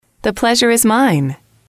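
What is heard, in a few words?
"the pleasure is mine", English, female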